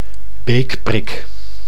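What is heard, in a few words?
"beekprik", Dutch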